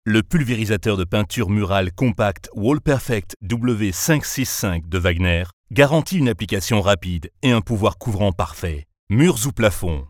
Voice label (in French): Voix grave demo produit; Wagner Wallperfect